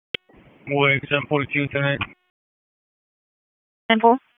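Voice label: Dispatch 1, P25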